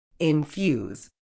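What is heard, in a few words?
"infuse", British English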